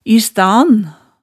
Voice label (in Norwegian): "istan", hemsedalsmaalet